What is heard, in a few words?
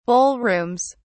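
"ballrooms", British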